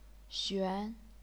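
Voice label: "xuan", chinese pinyin, second tone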